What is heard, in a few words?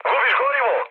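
Serbian tank damaged mood high; War Thunder; speech srb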